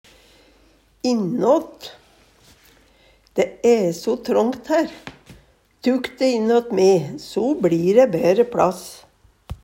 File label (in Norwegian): innat, Numedalsmål